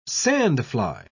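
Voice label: "sandfly", British English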